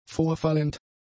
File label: "vorfallend", German; adjectives